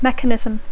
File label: "mechanism", English; Dacco